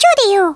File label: "brilliant", Hungarian; Worms Armageddon